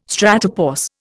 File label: "stratopause", Indian